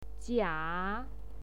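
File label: "jia", Mandarin Chinese pinyin, third tone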